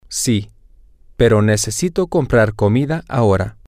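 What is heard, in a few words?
Spanish, male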